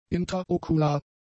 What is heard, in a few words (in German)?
"intraokular", german; adjectives